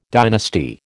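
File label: "dynasty", American English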